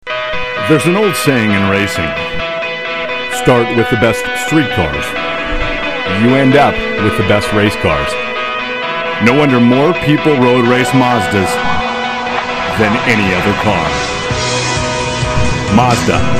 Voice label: audio commercial